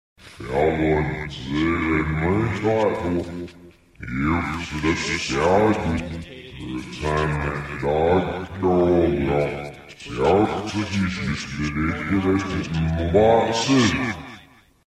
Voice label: Narration